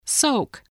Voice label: "soak", English voice

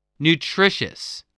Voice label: "nutritious", voice, spelling

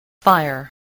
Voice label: "fire", voice

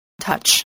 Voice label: "touch", voice

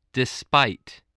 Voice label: "despite", English

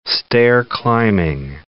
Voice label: "stair-climbing", English

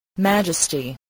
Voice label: "majesty", spelling voice

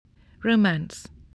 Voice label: "romance", English spelling

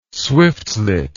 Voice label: "swiftlet", English word

